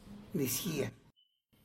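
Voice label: "rain", Tlacolula Zapotec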